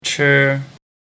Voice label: "chi", Mandarin

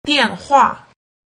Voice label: "dianhua", mandarin

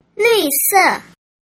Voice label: "lu se", Mandarin

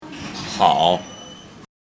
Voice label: "hao", mandarin

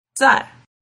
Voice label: "zai", mandarin

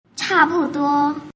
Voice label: "chabuduo", mandarin

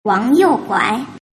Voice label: "wangyouguai", mandarin

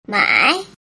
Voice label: "mai", mandarin

